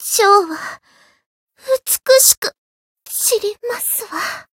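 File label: Voice retire, Japanese; suzette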